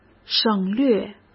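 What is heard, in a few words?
"省略", Mandarin Chinese; hsk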